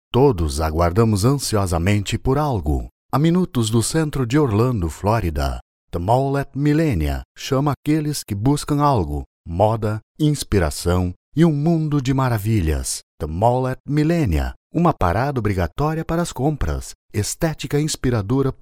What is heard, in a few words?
portuguese brazilian promos